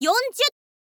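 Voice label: "yonzyu", Japanese, girl; voice; info girl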